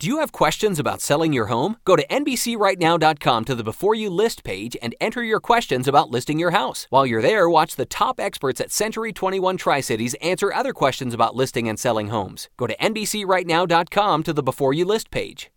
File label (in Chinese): 外籍英男网站宣传